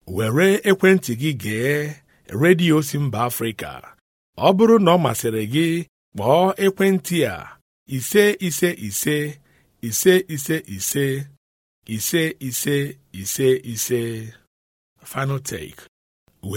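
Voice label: Igbo, General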